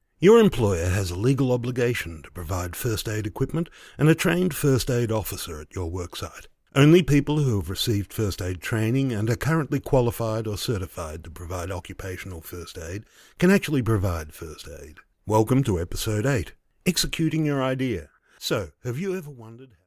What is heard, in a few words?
English Australia